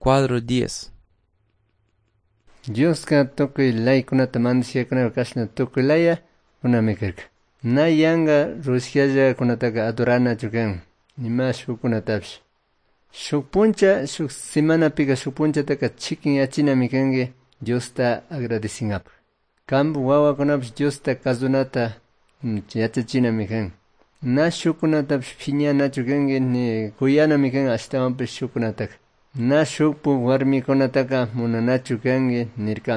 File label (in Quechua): good news quichua cayambe